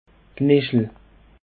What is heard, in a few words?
"knechel", Alsace